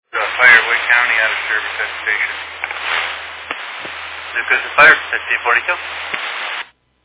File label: Fire Dispatch